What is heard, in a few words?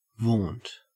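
"vaunt", Australian English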